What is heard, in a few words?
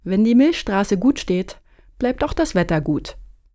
german folk saying fine weather milky way